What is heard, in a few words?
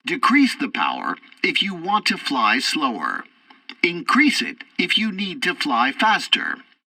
tutorial fighter throttle, english; War Thunder